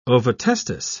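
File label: "ovotestis", English, British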